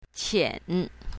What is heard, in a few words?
"qian3", Mandarin; colors